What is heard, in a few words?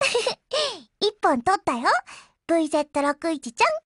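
WIN Japanese, Voice; GF Alarm; EVO3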